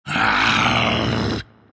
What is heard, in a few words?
anger; dota; omniknight